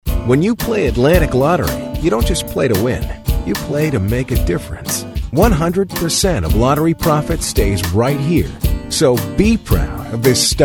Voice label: Commercial